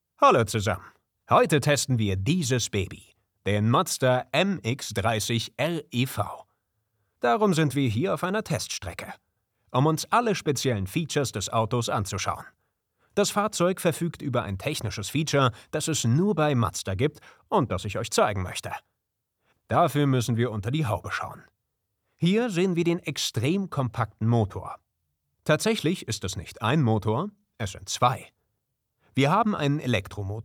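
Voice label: voiceover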